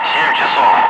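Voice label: Russian speech, Ka-50 Wingman; DCS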